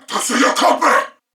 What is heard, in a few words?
Player Spotted Male, en; Dialog; Barks; Battle; Corpus Venus; Warframe; CorpusVenusMaleB